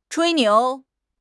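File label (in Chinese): "吹牛", Mandarin Chinese; HSK6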